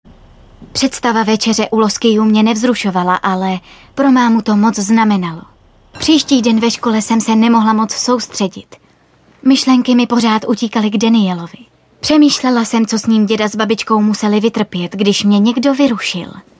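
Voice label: female Czech drama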